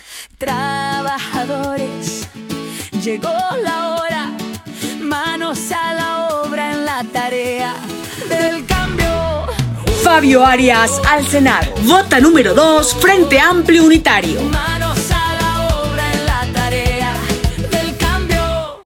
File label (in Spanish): Vallenato Mujer locucion; 20 seg